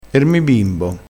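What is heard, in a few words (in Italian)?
"bimbo", vocabolario dialettale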